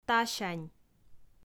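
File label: "тасянь", Komi, female; audio dict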